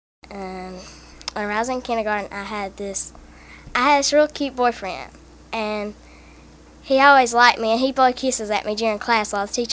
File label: Working Class Trailer Warwick GA WF age 11